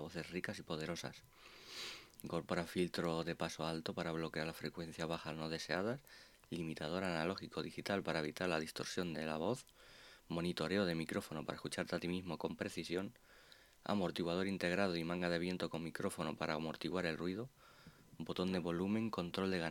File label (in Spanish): PRUEBA DE GRABACION DE VOZ RAZER SEIREN V2 PRO